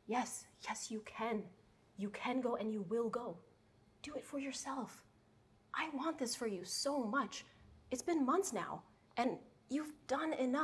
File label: american